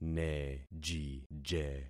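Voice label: "nejije", concatenated syllables; Affixing experiment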